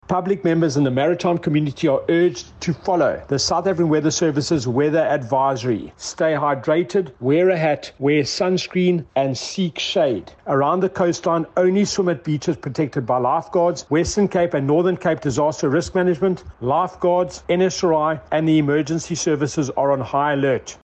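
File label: English; Heat Wave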